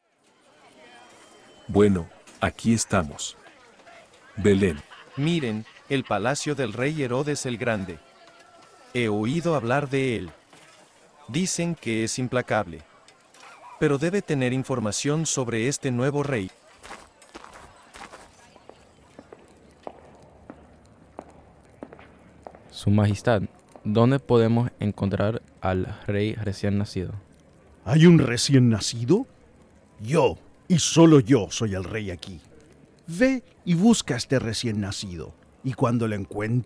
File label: Scene Spanish, nativity